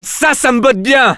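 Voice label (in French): positive vocalization, French; Scout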